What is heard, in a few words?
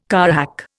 "carrack", Indian